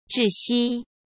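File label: "窒息", Mandarin Chinese; chinese-flashcards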